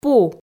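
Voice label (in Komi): "пу", Komi, female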